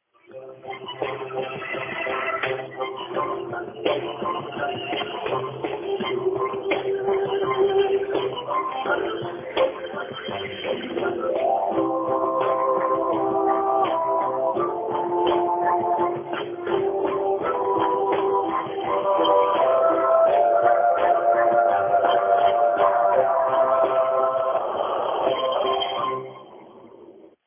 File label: voicecall